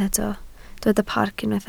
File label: "parc", Welsh